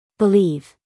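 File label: "believe", American English, female; 1000 hours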